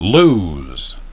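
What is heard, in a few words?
"lose", English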